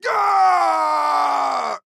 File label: Pain Death